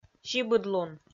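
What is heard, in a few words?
"сибыдлун", Komi, female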